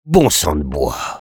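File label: positive vocalization, French; Sniper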